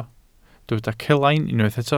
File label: "celain", Welsh